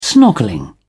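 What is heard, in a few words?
"snorkelling", British English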